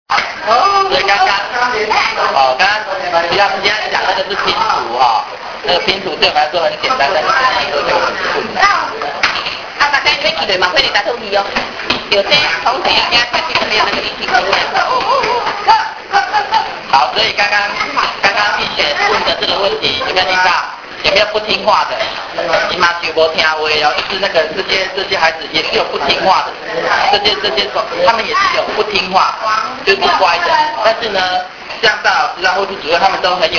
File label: book talking; 感動電池小天使關懷之旅錄音內容